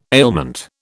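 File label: "ailment", British English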